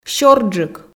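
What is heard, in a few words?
"сёрджык" Komi, female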